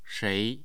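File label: "shei2", Mandarin Chinese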